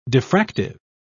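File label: "diffractive", en, British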